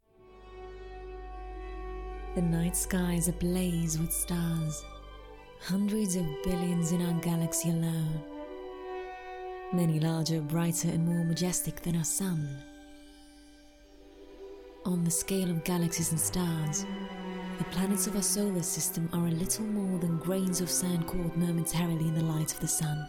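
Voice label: British English Documentary; GoLocalise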